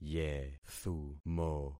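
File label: "yethumo", concatenated syllables; Affixing experiment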